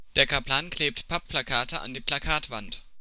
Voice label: German tongue-twister Pappplakate